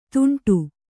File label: "ದುಂಡು", Kannada